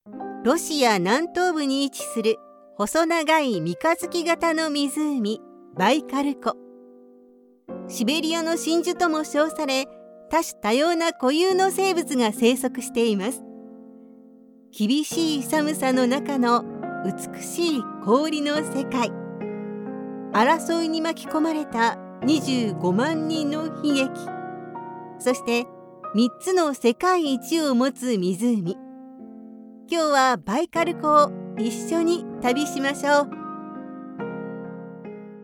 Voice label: japanese narration